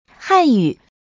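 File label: "hanyu", Mandarin Chinese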